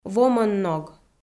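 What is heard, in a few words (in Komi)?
"вомӧн ног", Komi, female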